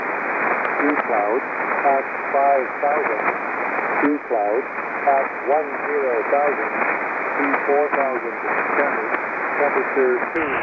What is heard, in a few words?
Trenton Volmet USB; 15034khz